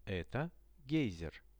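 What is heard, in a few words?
"this is a geyser", Russian; Это гейзер; nature; priroda; RUSSIAN VISUAL DICTIONARY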